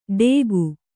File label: "ಡೇಗು", Kannada